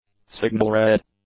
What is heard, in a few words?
"signal-red", English; adjectives